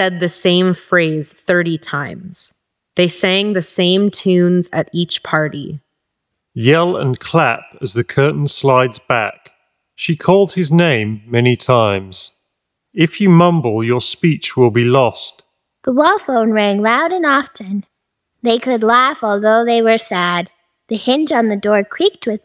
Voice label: Reverb microphone sample; Drop EPOS PC38X

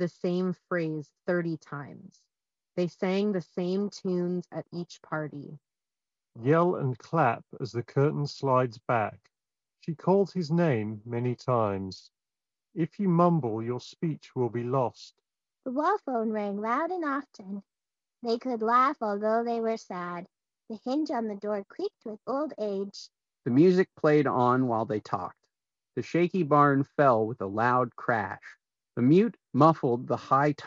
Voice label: Dongle Ideal microphone sample; Sony Pulse Explore

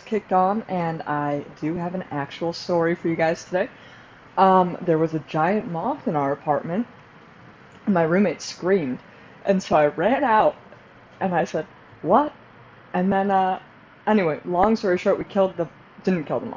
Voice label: Panasonic Technics RZ S500W microphone

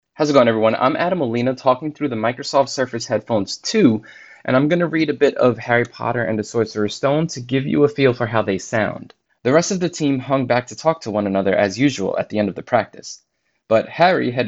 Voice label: Surface Headphones 2 mic

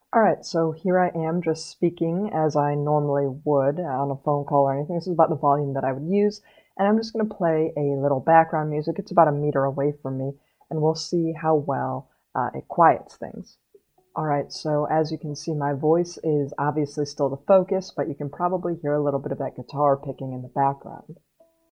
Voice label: AKG N700NC microphone

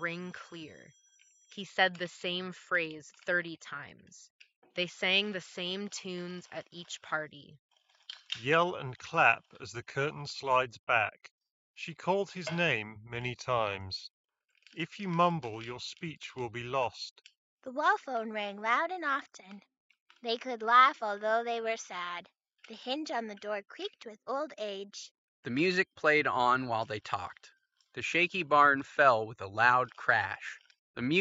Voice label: JBL Quantum One Office microphone sample

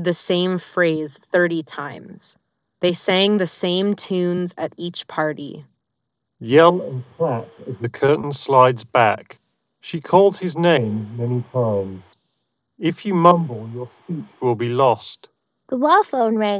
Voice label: LG Tone Free Tone T90Q Ideal microphone sample

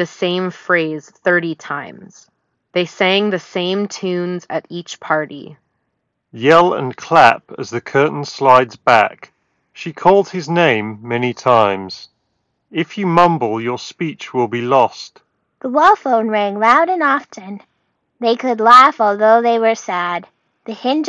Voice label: Earfun Air Pro 4 Ideal microphone sample

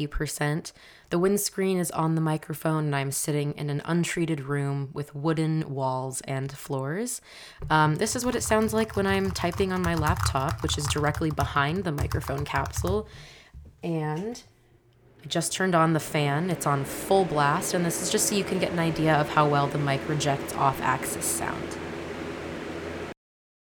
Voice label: cardioid speak

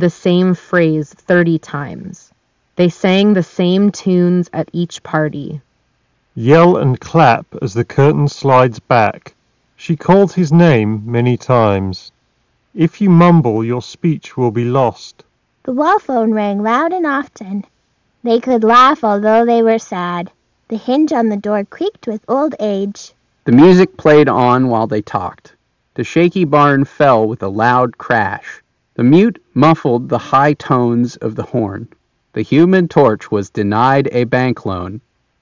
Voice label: Grell Audio TWS1 Ideal microphone sample

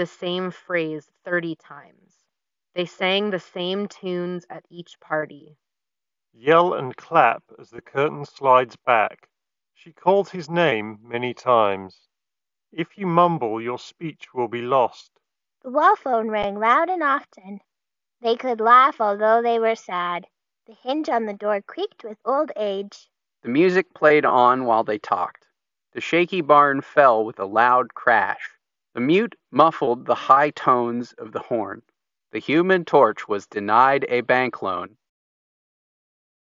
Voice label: Ideal microphone sample; JBL Reflect Flow pro

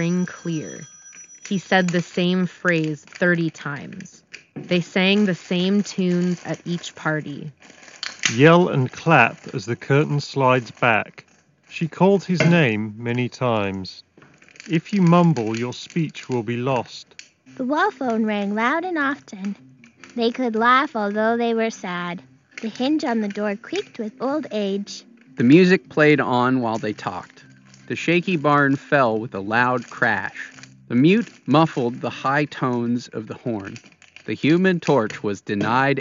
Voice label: Office microphone sample; Beyerdynamic Blue Byrd

